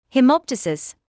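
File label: "haemoptysis", British English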